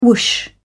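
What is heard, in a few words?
"whoosh", British English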